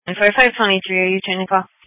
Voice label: Sheriff Dispatch; sdr